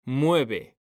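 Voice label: "mueve", Spanish, male; mekanta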